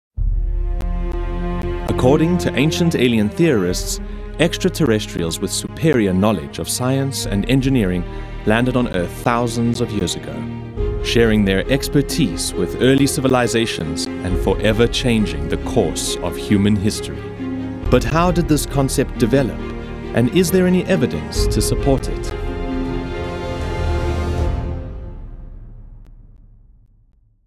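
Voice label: Documentary Effects; Ancient Aliens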